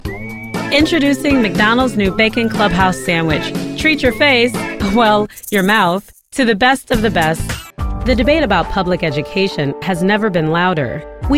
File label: commercial